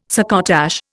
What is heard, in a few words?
"succotash", Indian